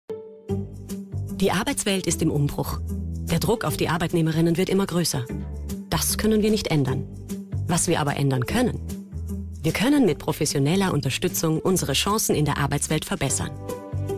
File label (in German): Frau OFF